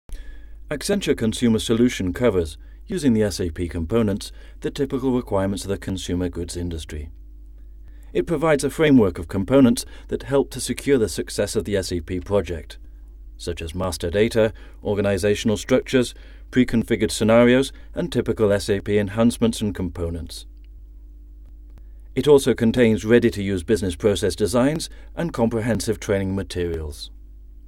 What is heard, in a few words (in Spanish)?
voz video corporativo locutor ingles britanico nativo locuciones voz